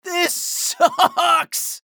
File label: chatter negative; respawn; anarki